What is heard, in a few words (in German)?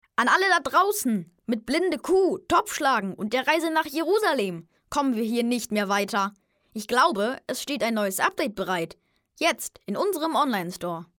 Jungen